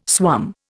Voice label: "swum", Indian